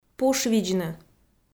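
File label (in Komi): "пушвидзны", Komi, female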